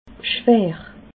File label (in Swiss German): "schwer", alsace